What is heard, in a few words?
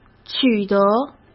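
"取得", Mandarin Chinese; chinese flashcards; hsk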